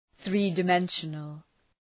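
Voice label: "three-dimensional", English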